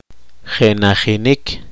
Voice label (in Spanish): aunar, poqomchi